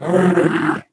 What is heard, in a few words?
angry; Portal 2; Source Engine; video games; ballbot